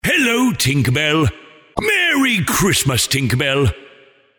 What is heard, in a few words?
Personalised Santa Message